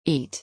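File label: "eat", English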